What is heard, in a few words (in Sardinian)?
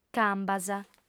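"cambas", female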